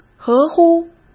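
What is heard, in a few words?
"合乎", Mandarin Chinese; hsk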